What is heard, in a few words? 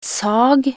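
"sag", Norwegian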